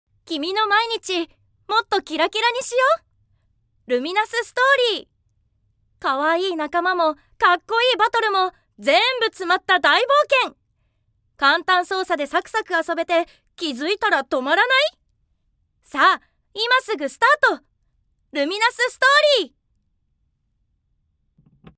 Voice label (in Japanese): アプリゲームCM